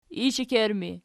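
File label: "ishekerttur" ergative, Archi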